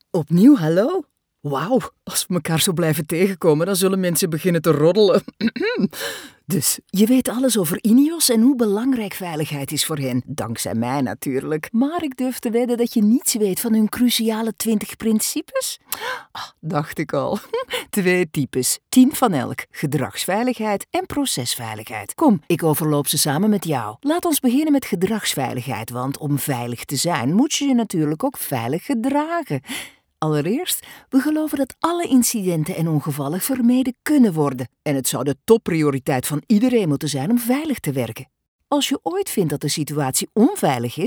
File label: Flemish Explainer